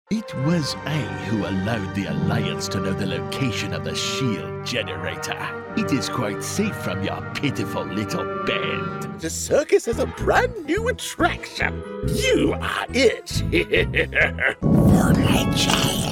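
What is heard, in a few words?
Character Animation, Male Voice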